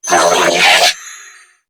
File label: Cover Me Grineer Female; en; New War; Narmer Grineer